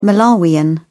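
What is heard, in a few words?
"malawian", British English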